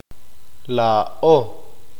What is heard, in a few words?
"nosotros inclusivo", Q'eqchi'